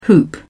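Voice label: "hoop", British English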